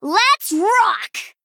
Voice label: Female Gnome Attack

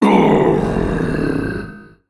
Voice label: Male Mech Death

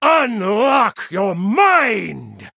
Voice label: Male Human Attack

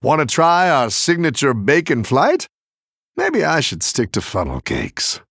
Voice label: Male Human; Scabbs; Quilboar

